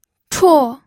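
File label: "chuo", pinyin, fourth tone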